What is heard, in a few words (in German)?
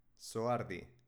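"zohardi", baskische Mädchennamen, Aussprache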